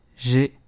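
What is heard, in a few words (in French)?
"G", learn French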